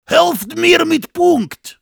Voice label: help me capture, de; "Helft mir mit Punkt!"; Heavy